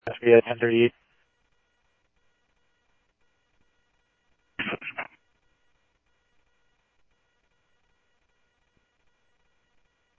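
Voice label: Mesa Police Department, scanner audio trunk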